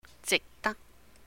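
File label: "jihk dàk 值得", Cantonese; be worth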